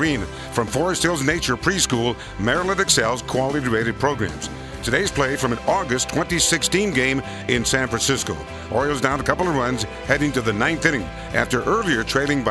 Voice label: kids Call spot